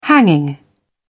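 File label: "hanging", British English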